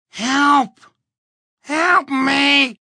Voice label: Help Help Me, Male; Talking Sound Effects